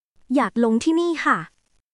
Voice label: Thai, basic thai phrases

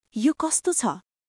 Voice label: Nepali, basic phrases

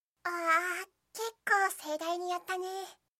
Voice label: anime, Irritated & Angry Phrases from Anime Girls

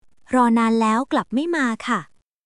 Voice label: Thai, basic thai phrases

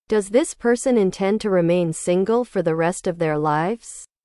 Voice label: english

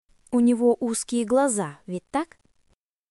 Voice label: Russian, russian phrases insults; ロシア語のネガティブなフレーズ

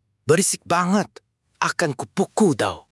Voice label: indonesian phrases

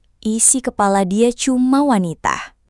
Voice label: indonesian phrases

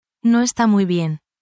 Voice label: spanish, spanish phrases

